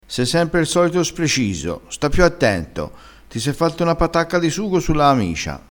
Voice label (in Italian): "patacca", dialettale crespinese